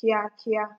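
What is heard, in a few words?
"kiakia", Nigerian Pidgin, female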